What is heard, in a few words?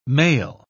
male